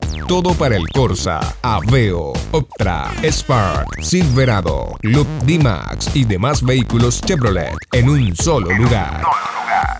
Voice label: SPANISH